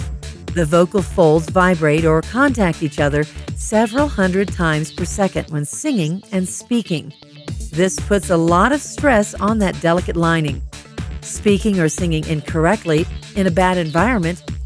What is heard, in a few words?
Narration, voice over